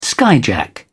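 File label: "skyjack", British English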